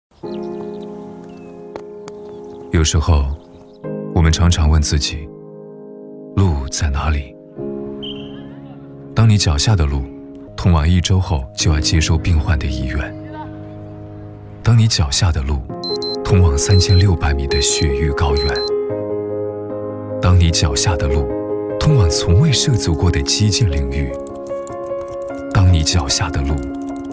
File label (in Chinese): 男,独白 自然 励志; 路在哪里